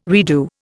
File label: "redo", Indian